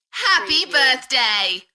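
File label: British female Happy birthday Enthusiastic; beanstalk audio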